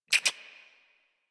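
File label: horse start adult, English; Warframe; Duviri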